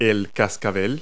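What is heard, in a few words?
"rattle", English word; Multi-Lingual Talking Picture Dictionary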